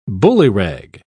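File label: "bullyrag", British English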